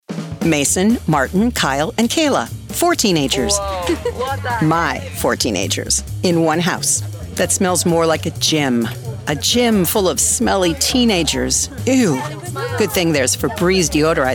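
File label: Commercials, Professional Female Voiceover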